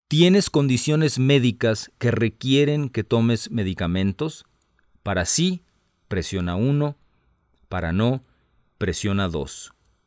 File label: Spanish, hotline